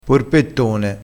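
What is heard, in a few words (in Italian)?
"porpettone", dialettale, crespinese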